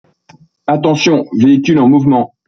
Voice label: Voice Alarm FR; blaxtair solutions